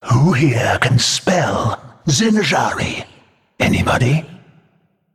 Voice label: Male Naga Play